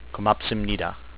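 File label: "thank you", spoken word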